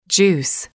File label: "juice", English